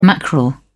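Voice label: "mackerel", British English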